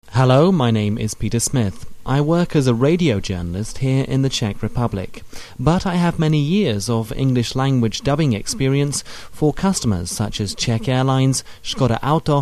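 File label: Male English IVR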